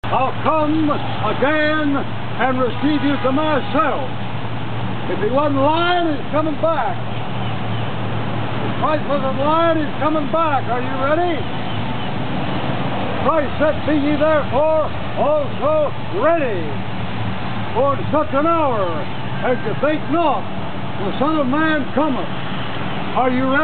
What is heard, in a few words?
street preaching; ruckman; corinthians